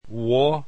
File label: "ux", Esperanto alphabet